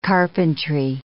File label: "carpentry", English